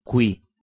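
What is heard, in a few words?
"kwih1", Proto-Indo-European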